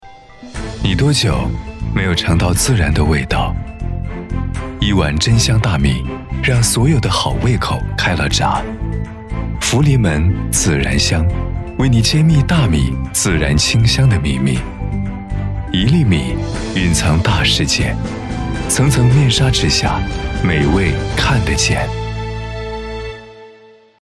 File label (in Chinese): 男-宣传片--洋气--时尚; 福临门大米; 中央电视台CCTV8台标声